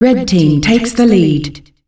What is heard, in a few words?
Red Team takes the lead, female announcer; Unreal Tournament; ut2004